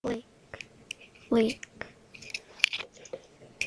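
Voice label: "lick", spoken word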